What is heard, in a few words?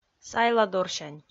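"сайладорсянь", Komi, female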